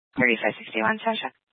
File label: Police Dispatch, scanner; Police 1 Dispatch